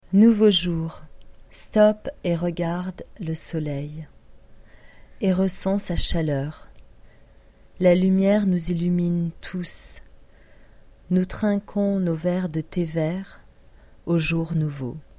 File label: poem read french